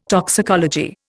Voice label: "toxicology", Indian